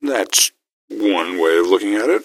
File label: answer, gasmask; scmod